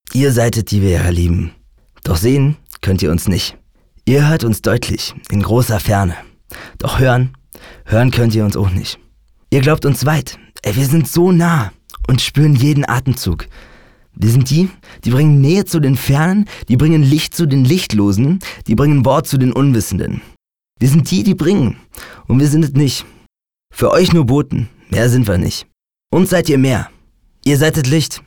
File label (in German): berlinerisch, maenner; Akzente+Dialekte